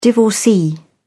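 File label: "divorcee", British English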